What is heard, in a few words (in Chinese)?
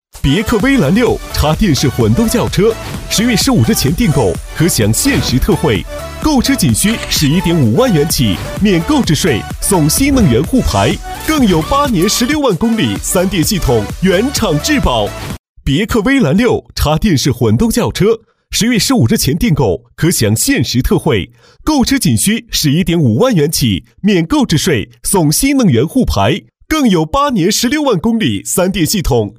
男 广告配音 混动轿车 动感, 年轻气质 大气浑厚; 别克